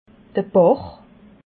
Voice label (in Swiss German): "bach", alsace